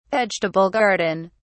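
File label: "vegetable garden", British English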